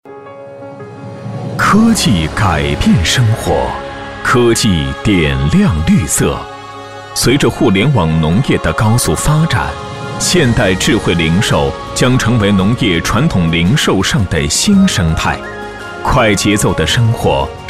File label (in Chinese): 大气科技感; 福马生活智慧店